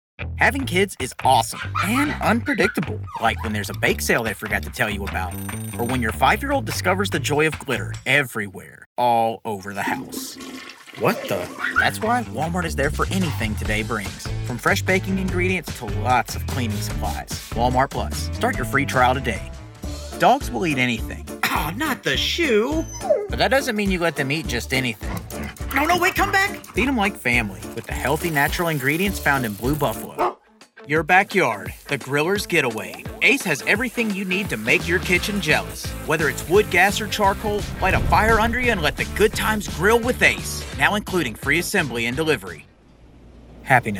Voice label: Commercial